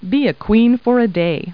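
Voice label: woman; Talking Clip Words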